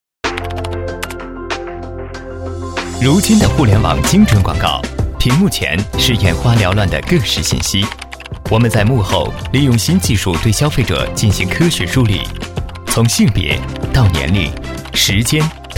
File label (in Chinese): 男国 专题 产品 科技; 互联网